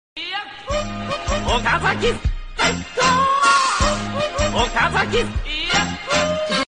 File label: random japanese